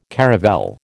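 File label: "caravel", American English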